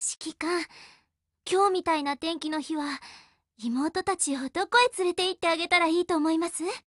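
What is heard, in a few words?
HELLO, Japanese; GF Alarm; stg940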